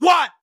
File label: "what", voice sample